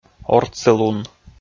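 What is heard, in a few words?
"ортсылун", Komi, male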